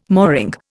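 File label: "mooring", Indian English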